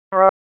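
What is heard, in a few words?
Police Northeast District Disp, radio; Raleigh; wake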